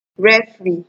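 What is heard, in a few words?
"referee", Nigerian Pidgin, female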